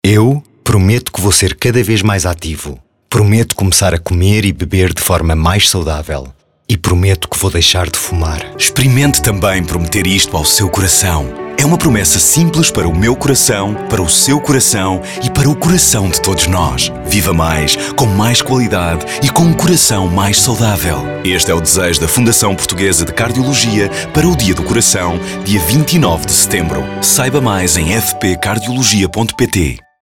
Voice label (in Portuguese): SPOT RADIO